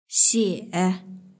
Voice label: "xie3", Chinese; hsk1

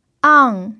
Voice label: "ang4", pinyin

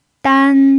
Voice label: "dan1", Chinese pinyin

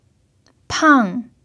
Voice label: "pang4", chinese pinyin

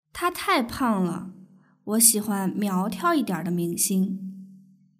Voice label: dialogue, Chinese; bodyfigure

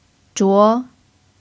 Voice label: "zhuo2", Chinese pinyin

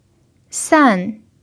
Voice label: "san4", chinese pinyin

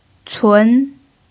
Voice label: "cun2", chinese pinyin

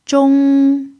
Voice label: "zhong1", pinyin

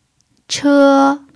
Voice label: "che1", chinese pinyin

